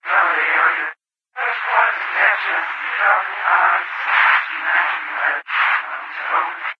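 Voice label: data transfer female vox, citadel; video games; source engine; episodic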